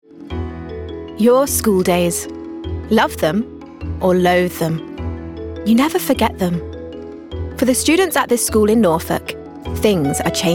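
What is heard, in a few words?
documentary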